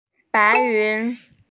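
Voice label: "bai2yun2", Mandarin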